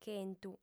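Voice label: "chentu", female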